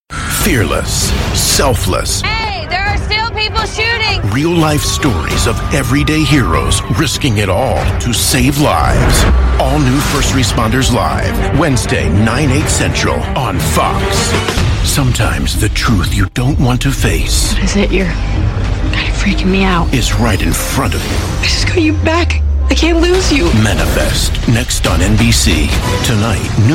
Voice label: promos drama

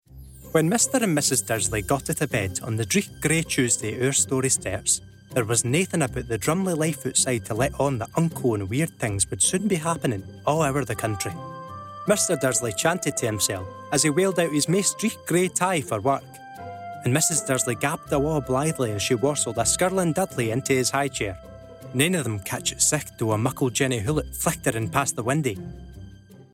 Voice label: Audio Book Sample Scots

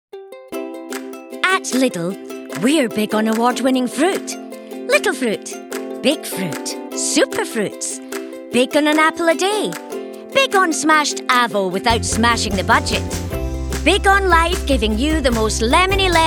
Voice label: Commercial; Lidl

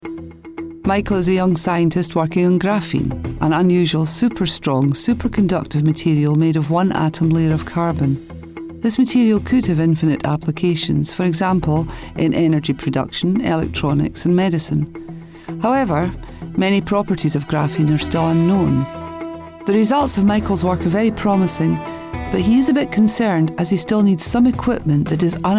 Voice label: Corporate

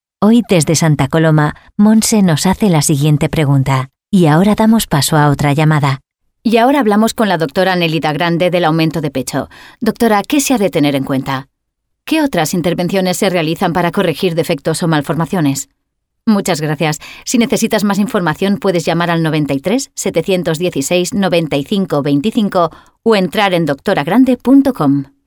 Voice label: interview

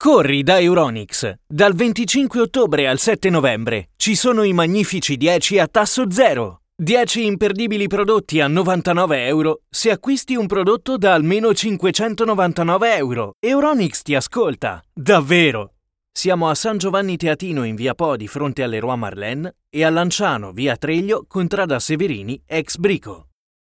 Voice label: commercial; euronics

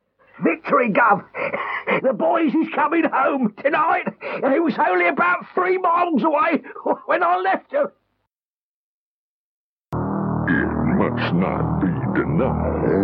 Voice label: Character Voice Samples

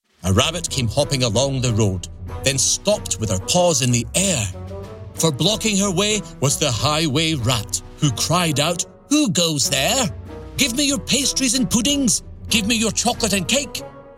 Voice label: Children's Audiobook Extract

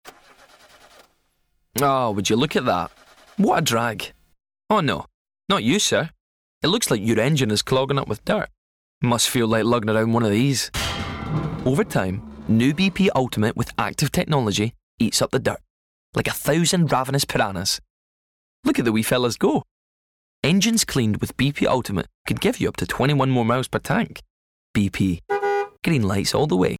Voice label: Commercial; BP

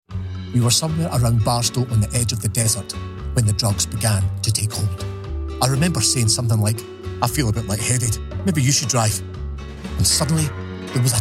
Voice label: Audiobook Extract

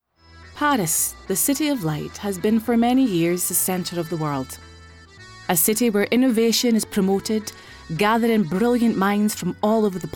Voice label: Paris Travel Explainer

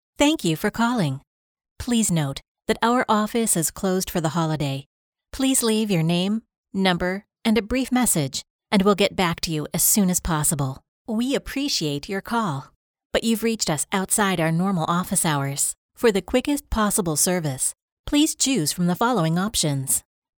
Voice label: Telephone

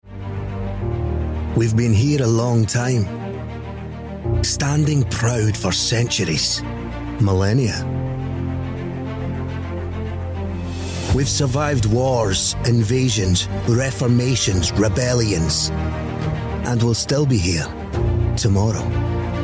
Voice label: Commercial; Historic Scotland

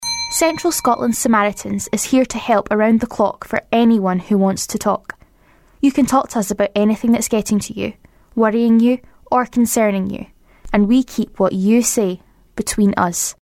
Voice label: Commercial; Samaritans

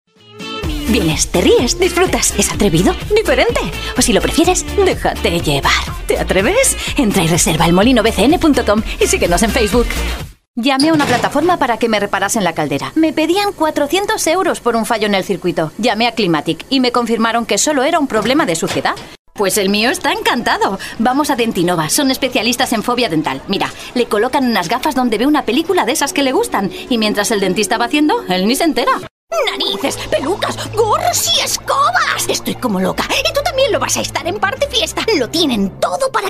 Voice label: radio acting

